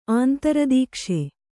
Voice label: "ಆಂತರದೀಕ್ಷೆ", Kannada